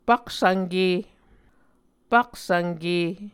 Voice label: "paksvnke", Muscogee